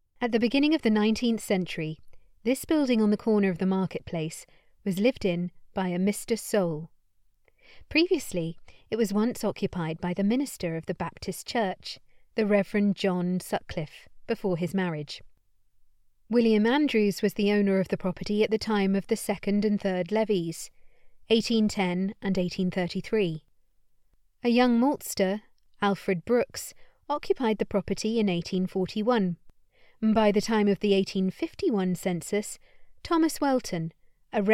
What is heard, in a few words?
VOICEOVER; THE CHERRY TREE PUB RESTAURANT